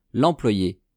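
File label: "l employe", French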